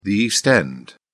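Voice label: "east end", British English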